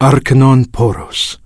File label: Draenei Male Greeting